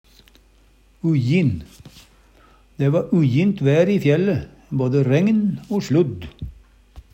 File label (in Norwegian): ujjin, Numedalsmål; Adjektiv